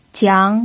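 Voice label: "jiang" second tone, pinyin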